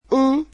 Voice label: "one", Portuguese

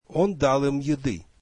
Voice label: russian he gave them food

